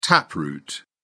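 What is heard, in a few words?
"taproot", British English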